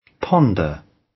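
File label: "ponder", British English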